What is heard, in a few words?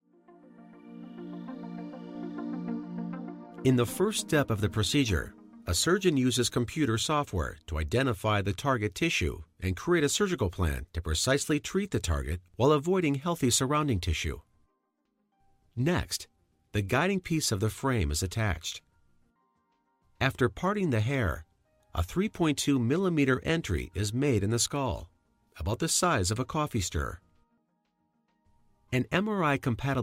Instructional